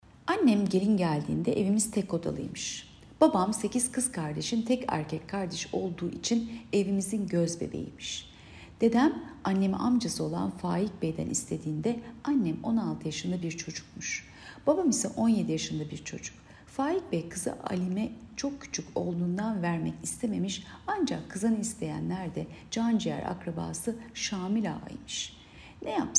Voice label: turkce